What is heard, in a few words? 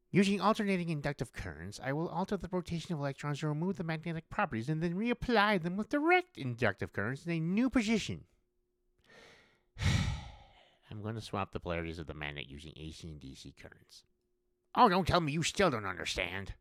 Nerd Scientific Brainy